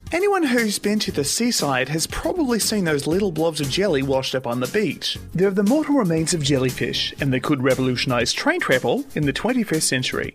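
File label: English Australian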